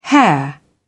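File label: "hair", British English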